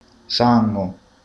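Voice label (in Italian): "sangue", Italian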